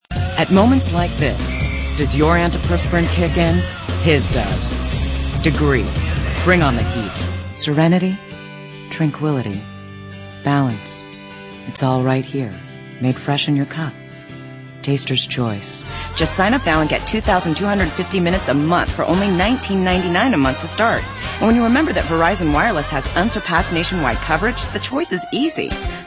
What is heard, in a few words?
female, 30s 40s beyond